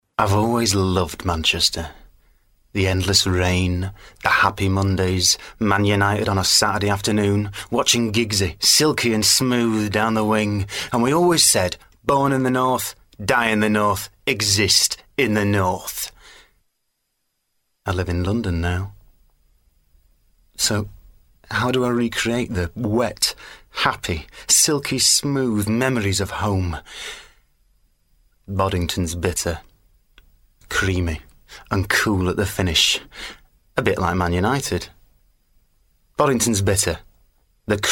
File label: Commercial; Boddingtons; Vocal Point